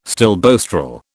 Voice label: "stilboestrol", British